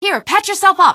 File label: health, female; npc; Garry's Mod; hl2